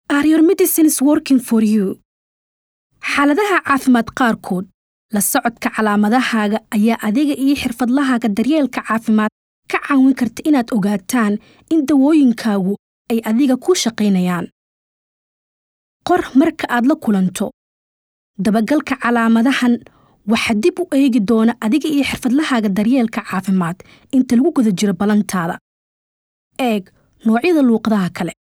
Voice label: Are the Medicines Working Symptom tracker Somali